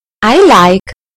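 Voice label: "i like", British English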